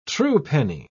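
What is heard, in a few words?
"truepenny", British English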